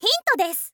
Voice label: "hint desu", info girl voice